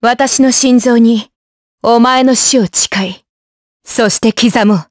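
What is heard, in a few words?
vox dialogue, voices, Japanese; Seria; kingsraid; voices heroes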